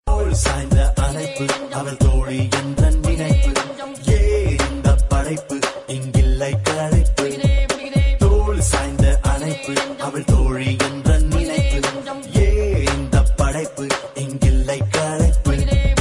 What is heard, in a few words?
Men Voice